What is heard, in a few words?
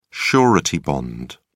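surety bond, British English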